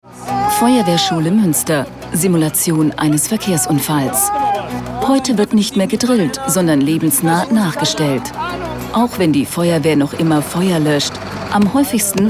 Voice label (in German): Doku; Blaulichtgeschichten aus NRW; WDR